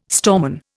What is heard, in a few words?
"storeman", Indian